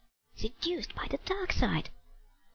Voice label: Kid; Fat Penguin